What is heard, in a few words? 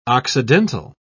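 "occidental", British English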